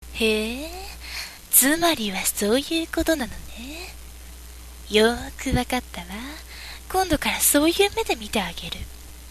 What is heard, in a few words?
girl